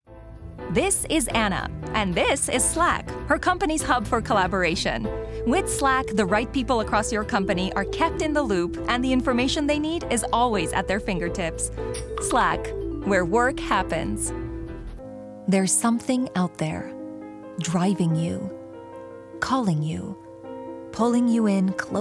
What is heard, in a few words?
Voiceover, Commercial, Women; Chicago